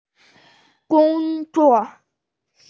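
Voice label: "gong1zuo4", Mandarin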